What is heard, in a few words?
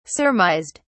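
"surmised", British English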